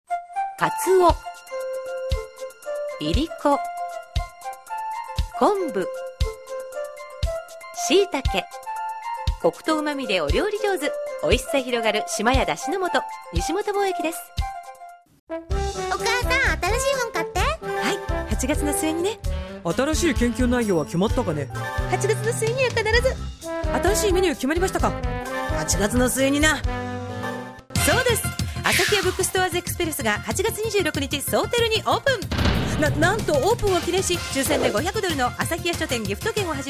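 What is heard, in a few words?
Japanese, commercial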